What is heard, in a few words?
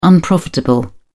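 "unprofitable", British English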